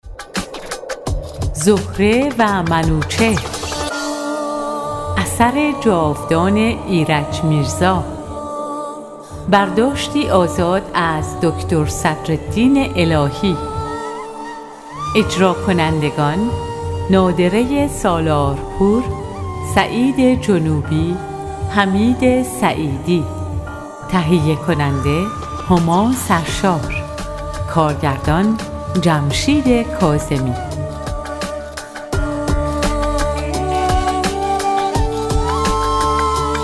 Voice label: farsi f narration; voice demos